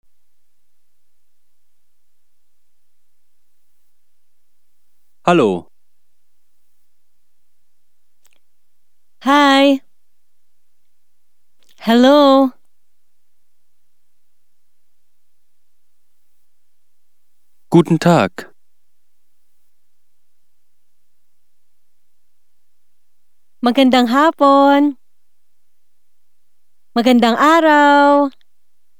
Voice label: filipino